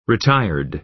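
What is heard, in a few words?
"retired", American English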